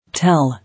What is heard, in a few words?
"Tell", English